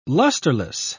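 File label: "lusterless", British English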